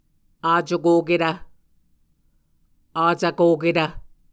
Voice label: "acokoketv", Muscogee